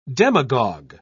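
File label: "demagog", British English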